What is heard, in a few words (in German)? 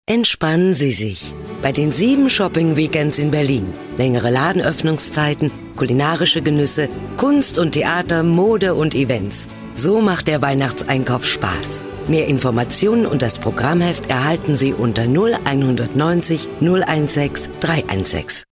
Werbung, Werbesprecherin; Sprachproben; Shopping-weekend